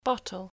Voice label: "bottle", English

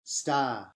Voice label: "star", English

